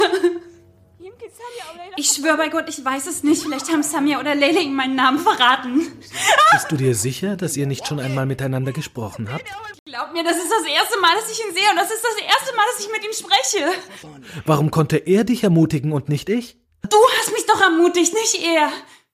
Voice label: Voice Over